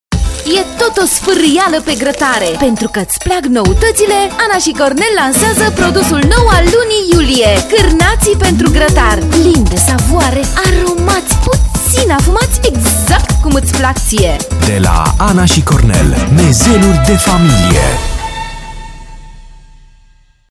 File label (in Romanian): spot radio carnati pentru gratar